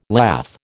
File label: "lath", American